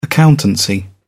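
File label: "accountancy", British English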